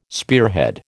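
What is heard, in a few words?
"spearhead", American English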